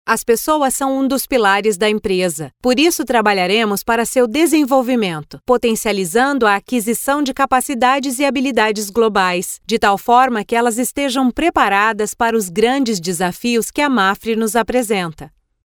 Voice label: locutora brasil brazilian voiceover, voz nativa de Brasil